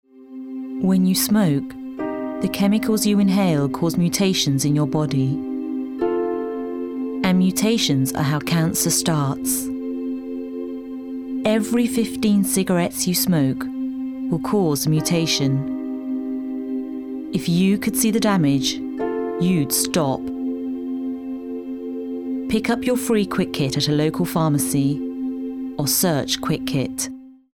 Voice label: english british movie trailers